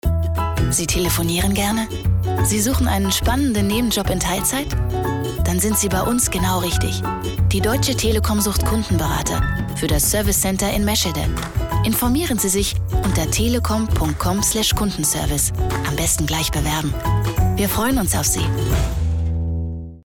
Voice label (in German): Werbung Recruiting